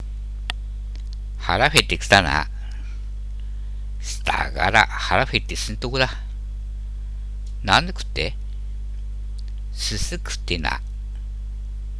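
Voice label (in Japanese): ハナメとコウメ, 秋田弁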